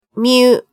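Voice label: "myu", Japanese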